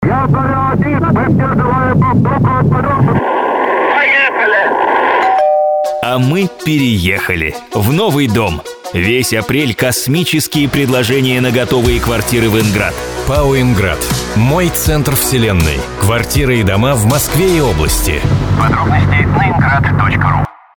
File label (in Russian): Аудиореклама; диктор; Аудиореклама для Инград; Звуки Москвы